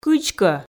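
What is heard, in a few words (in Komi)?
"кыдзкӧ", Komi, female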